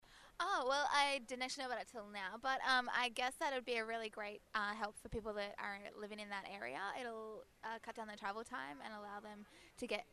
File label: busway voxpop